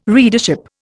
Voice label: "readership", Indian

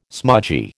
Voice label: "smudgy", American

